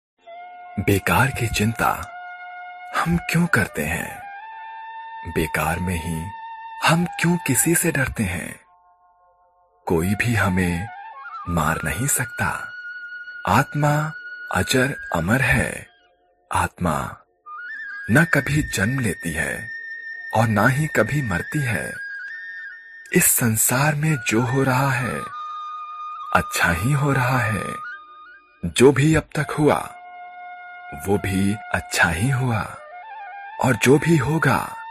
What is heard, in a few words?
Devotional, Mythology, Voice-Over; Geeta sar; Narration